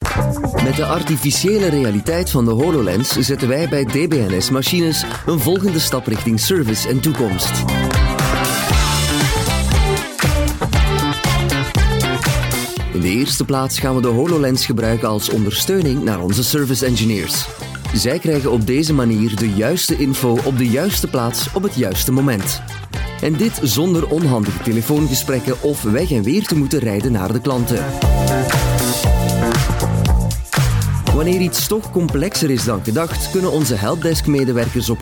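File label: Dutch, Corporate; GoLocalise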